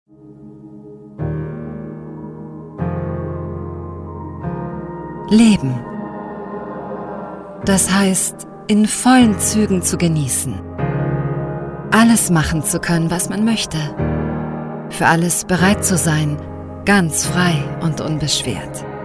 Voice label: IMAGEFILM Ausschnitt sanft zugewandt; Abbott Laboratories

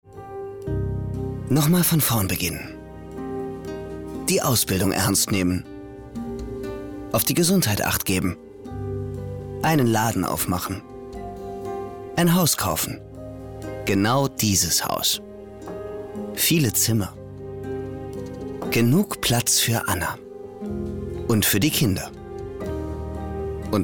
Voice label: testimonial bausparen

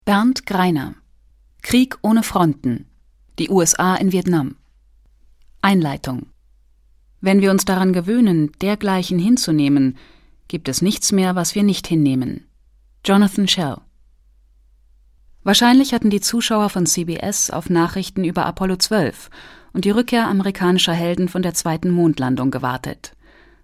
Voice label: hörbuch; bernd greiner; LOFT VOICES

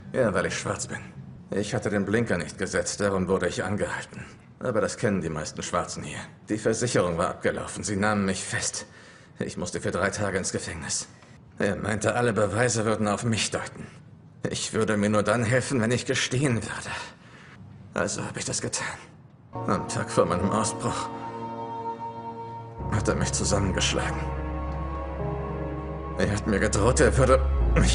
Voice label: Synchronausschnitt, Lip-Sync (Synchron), German; Law and Order - Synchron

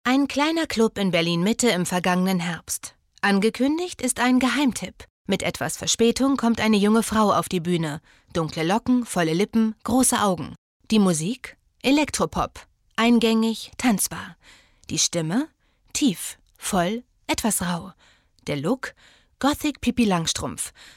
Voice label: kommentar

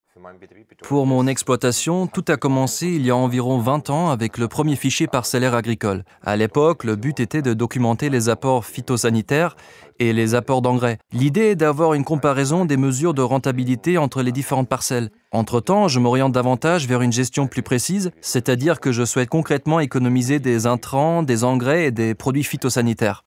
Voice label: Vodcast fr Voiceover Moderner Landwirt; Fendt; LOFT VOICES

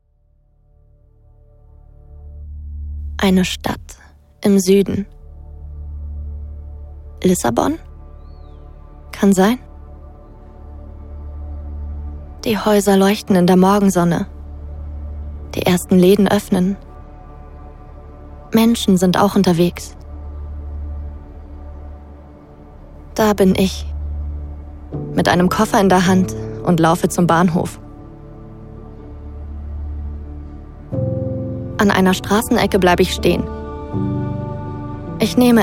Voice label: narrativ Aufbruch; Demo Hörbuch: 1. "Die Mondspielerin" von Nina George, 2. "Alte Meister" von Thomas Bernhar

